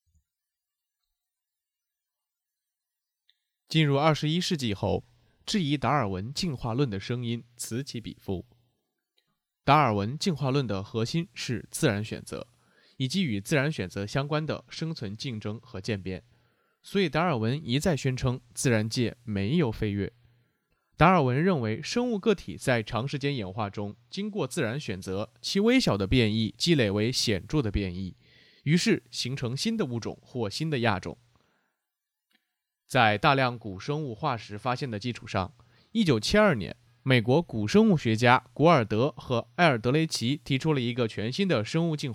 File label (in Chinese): 科普类